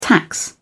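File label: "tax", British English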